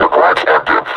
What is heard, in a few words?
announce enemy zombie, combine suppressor; Half Life Alyx